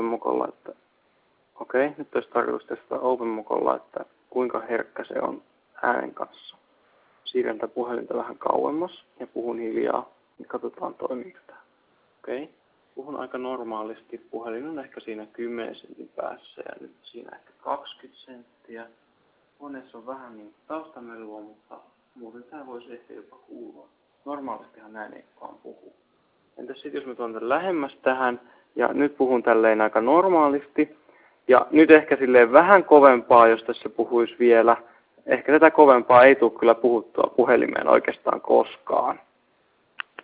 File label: openmoko quiet loud, call quality